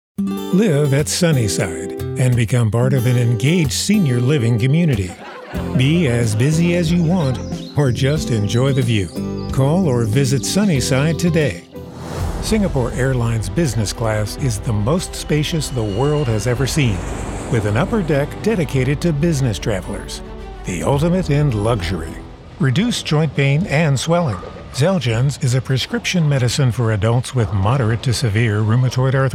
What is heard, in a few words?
commercial